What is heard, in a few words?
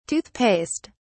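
"tooth paste", British English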